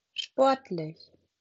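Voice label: "sportlich", German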